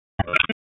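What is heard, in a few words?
EMS DISP, radio; wake; p25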